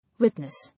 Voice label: "Witness", English

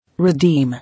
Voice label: "Redeem", English

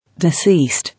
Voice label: "Deceased", English

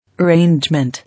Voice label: "Arrangement", English

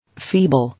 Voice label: "Feeble", English

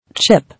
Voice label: "Chip", English

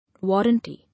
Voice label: "Warranty", English

